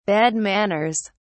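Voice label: "bad manners", British English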